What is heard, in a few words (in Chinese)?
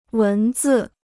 "文字", Chinese